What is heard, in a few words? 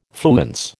"fluence", American